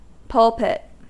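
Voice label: "pulpit", US English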